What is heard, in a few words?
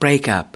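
"breakup", US English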